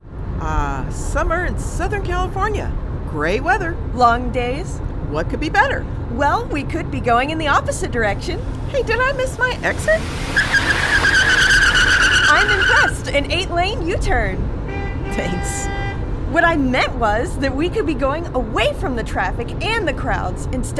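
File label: Female American Voiceover; Sunny San Diego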